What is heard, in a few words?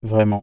male "vraiment" original, The file has a soft, down-ward pitch contour; CLEESE